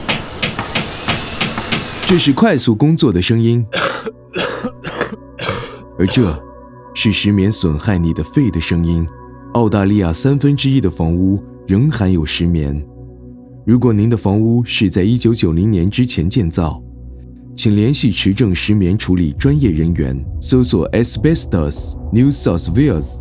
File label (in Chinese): RADIO MANDARIN